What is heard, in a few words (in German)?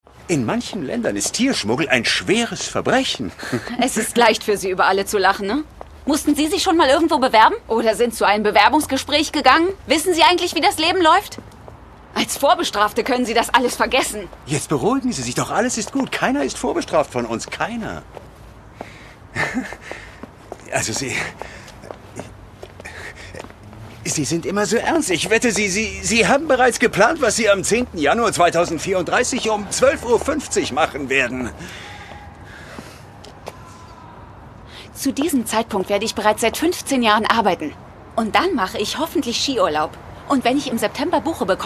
Aggressiv